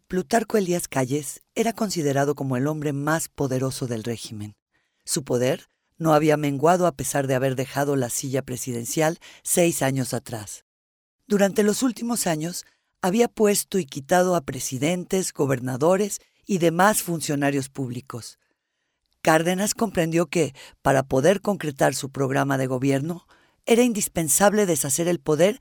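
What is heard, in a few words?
Spanish Latin America female narrative; spanish americas voice over talent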